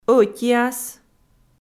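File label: "ӧтиас" Komi, female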